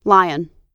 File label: "lion", pronunciation